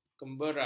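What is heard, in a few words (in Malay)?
"kembera", Malay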